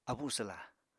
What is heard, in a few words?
"abuswla", apatani